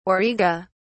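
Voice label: "auriga", British English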